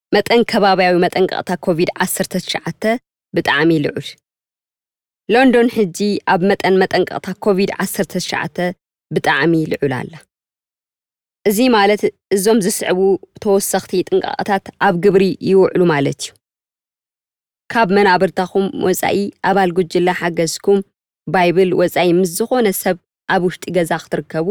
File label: TIGRINYA Covid London Alert Very High